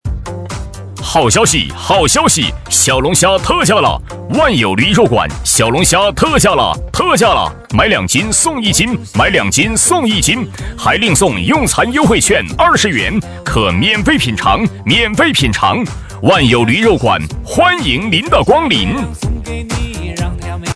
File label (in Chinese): 男声小龙虾特价